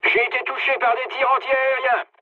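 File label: French damaged aa mood low; War Thunder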